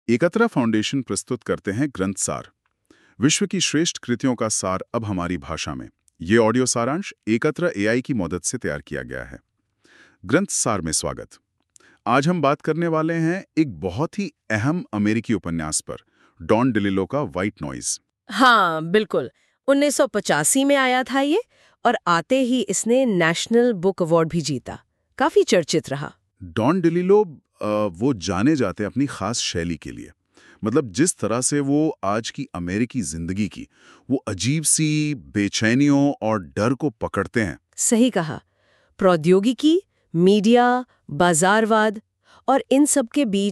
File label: Hinid; White Noise